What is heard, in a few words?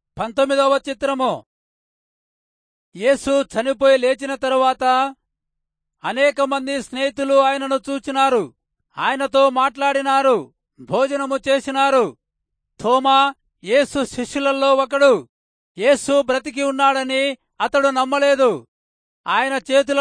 telugu rayalseema; good news